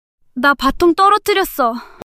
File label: fluster